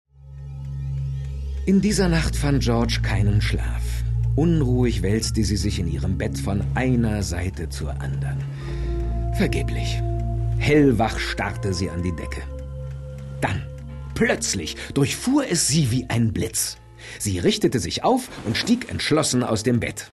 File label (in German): erzaehlung